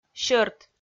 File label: "сьӧрт", Komi